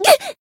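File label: Voice damaged Japanese; anaberu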